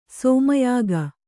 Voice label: "ಶಮ್ಯಾಕ", Kannada

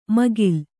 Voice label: "ಮೇಖಲೆ", kannada

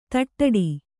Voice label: "ತಟ್ಟಡಿ", Kannada

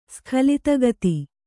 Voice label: "ಸ್ಖಲಿತಗತಿ", Kannada

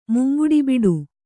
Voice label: "ಮುಂಗುಡಿಬಿಡು", Kannada

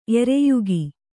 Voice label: "ಎರೆಯಿಕ್ಕು", Kannada

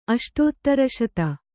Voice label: "ಅಷ್ಟೋತ್ತರಶತ", Kannada

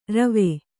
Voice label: "ರುವಿ", Kannada

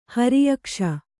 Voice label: "ಹರಿಯಕ್ಷ", Kannada

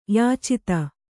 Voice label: "ಯಾಚಿತ", Kannada

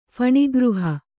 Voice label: "ಫಣಿಗೃಹ", kannada

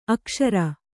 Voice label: "ಅಕ್ಸರ", Kannada

